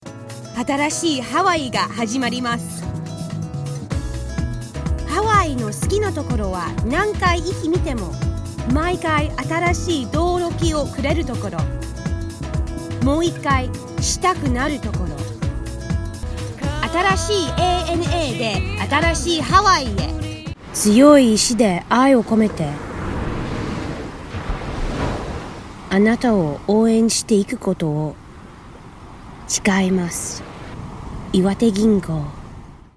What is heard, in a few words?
Japanese